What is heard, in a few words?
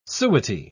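"suety", British English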